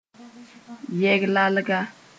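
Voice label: "yɛg lalga", Mossi; shave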